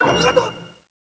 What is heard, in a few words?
announcement; janjan motokkun